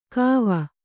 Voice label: "ಕೋವ", Kannada

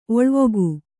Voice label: "ಒಳವುಗೈ", Kannada

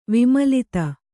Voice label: "ವಿಮಲತೆ", Kannada

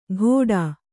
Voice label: "ಘೋಡಾ", Kannada

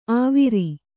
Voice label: "ಆವಿರಿ", Kannada

